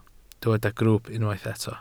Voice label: "grwwp", Welsh

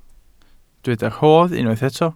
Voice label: "rhodd", welsh

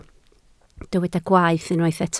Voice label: "gwaeth", Welsh

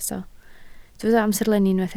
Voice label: "amserlenni", Welsh

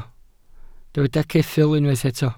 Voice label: "ceffyl", Welsh

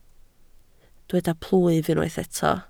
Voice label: "plwyf", Welsh

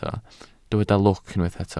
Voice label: "lwc", Welsh

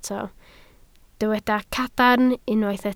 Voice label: "cadarn", Welsh

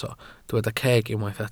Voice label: "ceg", Welsh

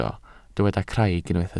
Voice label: "craig", Welsh

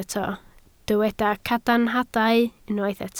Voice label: "cadarnhadau", Welsh

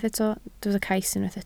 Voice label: "cais", Welsh

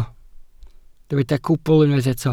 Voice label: "cwbl", welsh

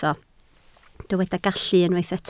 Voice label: "gallu", Welsh

